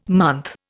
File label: "month", Indian